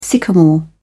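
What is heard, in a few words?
"sycamore", British English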